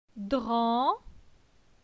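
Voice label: "Dran", French; phonetique; la syllabe an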